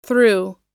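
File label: "through", US English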